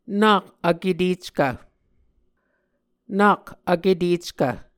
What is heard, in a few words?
"nak vketeckv", muscogee